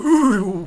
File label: Surprised; TR3; Playable Tutorial Level